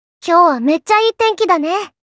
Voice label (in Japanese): Positive "Etenki", Kawaii Voice